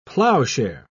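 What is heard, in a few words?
"plowshare", British English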